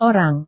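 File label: "orang", Indonesian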